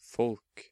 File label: "Folk", Norwegian; Folk = people.; Learn Norwegian Naturally